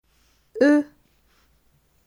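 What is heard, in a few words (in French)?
"Eux", French; delf a1; les pronoms toniques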